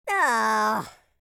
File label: sad, speech, Madchester